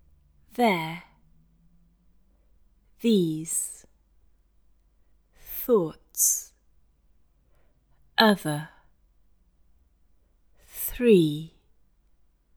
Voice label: Russian; English Pronunciation Errors made by Russian Speakers